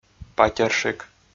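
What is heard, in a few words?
"патерщик", Komi, male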